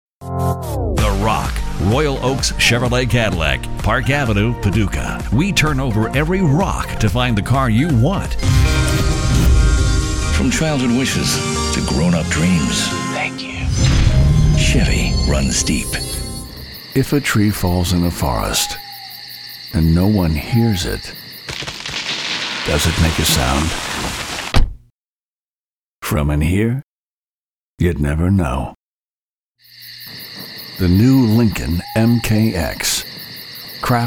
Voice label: Automotive VO